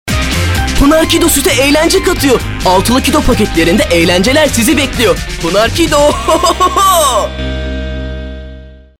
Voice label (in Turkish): reklam